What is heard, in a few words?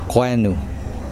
"coconut tree", Kosraean